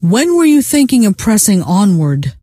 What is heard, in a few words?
when press on, scientist female